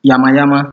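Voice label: "yamayama", Nigerian Pidgin, male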